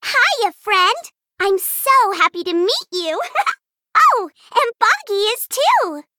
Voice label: Female Gnome Bark